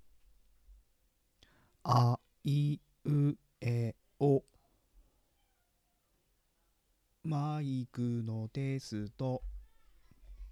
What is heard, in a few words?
ATR-2100x USB